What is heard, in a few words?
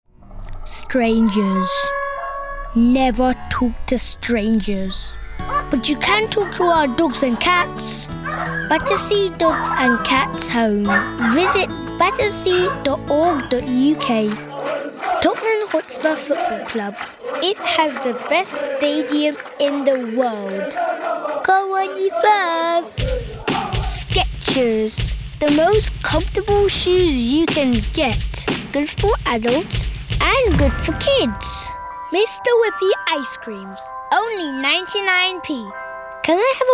commercial showreel, voiceover